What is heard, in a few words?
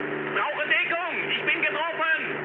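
German aircraft damaged mood low, speech; War Thunder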